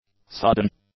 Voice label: "sudden", English; adjectives